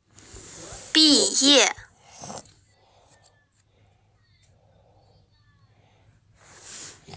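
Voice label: "bi4ye4", Mandarin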